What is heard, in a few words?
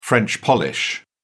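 "french polish", British English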